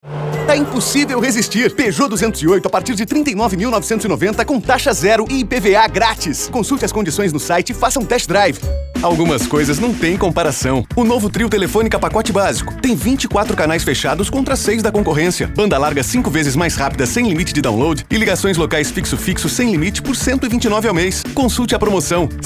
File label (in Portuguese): Locucao Varejo